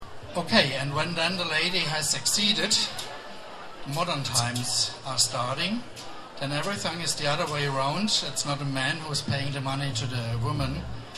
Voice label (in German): Ansage